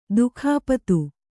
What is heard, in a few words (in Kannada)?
"ದಿಕ್ಪತಿ", Kannada